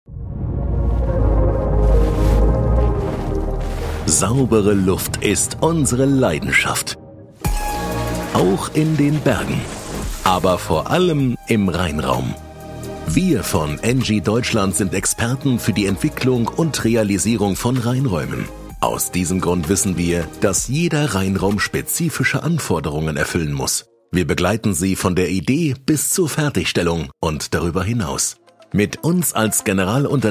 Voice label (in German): Sprecher Imagefilm bekannte deutsche Stimme; deutschland oesterreich schweiz